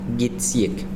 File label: "cabello", Zapoteco